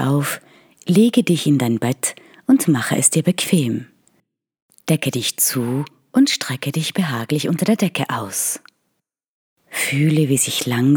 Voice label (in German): Meditation